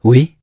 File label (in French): "oui", bloodelf, male; PhoenixCraft-1.16.5; PhoenixNPCPack; minecraft